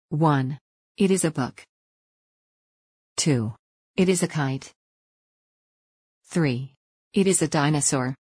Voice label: sigh words